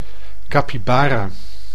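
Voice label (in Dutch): "capybara", Dutch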